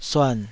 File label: "suan3", Taiwanese